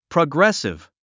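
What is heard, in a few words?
"progressive", English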